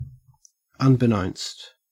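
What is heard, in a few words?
"unbeknownst", Australian English; pronunciations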